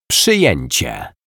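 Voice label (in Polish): "przyjecie", Polish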